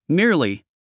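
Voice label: "merely", English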